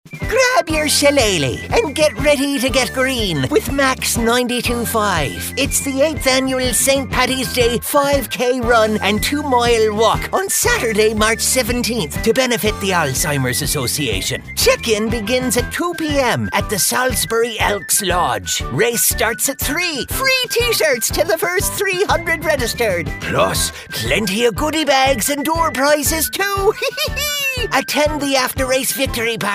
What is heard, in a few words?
IRISH leprechaun accent voiceover